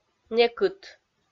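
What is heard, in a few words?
"некыт", Komi, female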